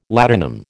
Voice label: "ladanum", American

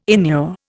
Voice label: "inure", Indian

